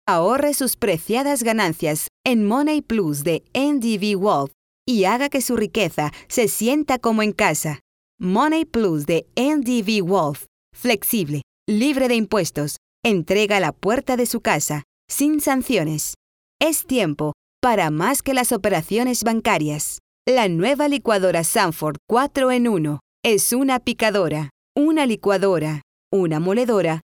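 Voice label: Spanish Spain, female, voice over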